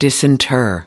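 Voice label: "disinter", US English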